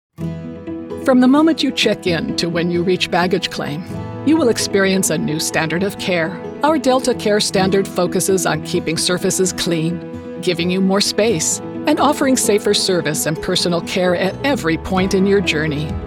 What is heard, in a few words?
CORPORATE MIX